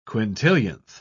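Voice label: "quintillionth", English, uk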